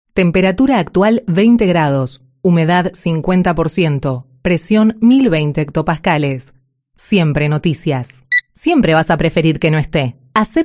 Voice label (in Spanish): Locutora argent